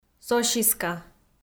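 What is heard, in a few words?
"сосиска", Komi-Zyrian, female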